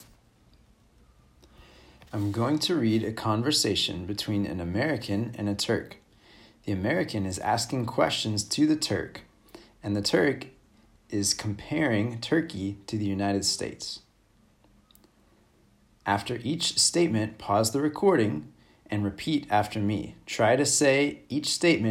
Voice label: Shadowing Exercise; Comparative Adj